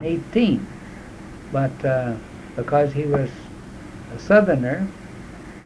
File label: Oral history Colorado, american english dialect recordings; Wayne Aspinall